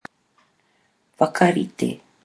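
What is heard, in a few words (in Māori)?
"whakarite", Māori word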